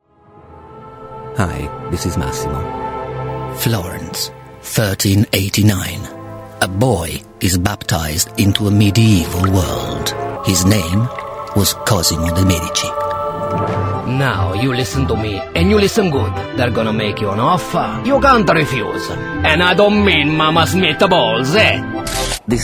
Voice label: male italian english with italian accent compilation